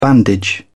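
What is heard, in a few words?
"bandage", British English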